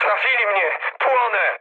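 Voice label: Polish on fire mood low; War Thunder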